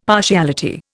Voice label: "partiality", Indian; Dictionary